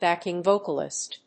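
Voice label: "backing vocalist", English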